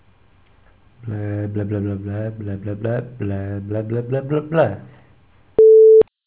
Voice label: voicemail annoucement; SIPclient